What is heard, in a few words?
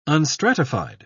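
"unstratified", British English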